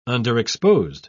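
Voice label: "underexposed", British English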